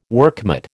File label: "workmate", American